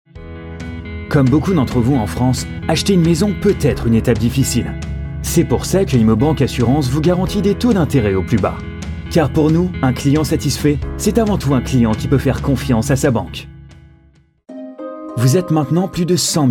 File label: French M Showreel; voice over